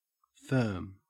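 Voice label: "firm", Australian English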